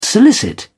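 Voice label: "solicit", English, British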